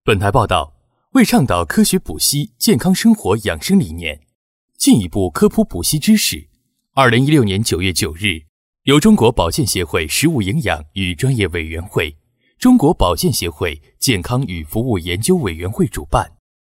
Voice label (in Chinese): 男 新闻 新闻播报串词; 补硒大会